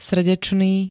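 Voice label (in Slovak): "srdečný", Slovak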